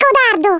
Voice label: COWARD, Speech Italian; Worms Armageddon